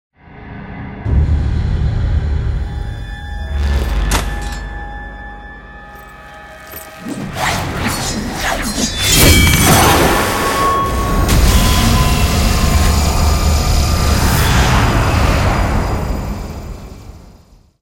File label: cinematic voice; lineage2